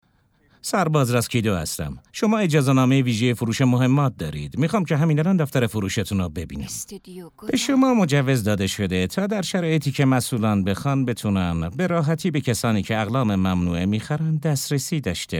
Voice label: dub